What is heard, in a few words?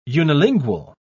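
"unilingual", British English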